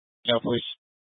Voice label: Fire Departments Mesa Simulcast; TOPAZ